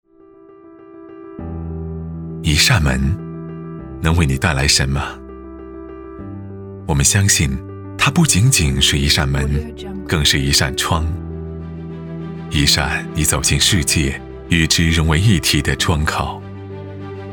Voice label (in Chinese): 男 专题 时尚; 企业; 门